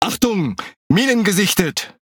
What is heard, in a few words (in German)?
CHAT MINE WARNING, DE; World Of Warships